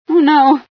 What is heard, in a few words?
female sci fear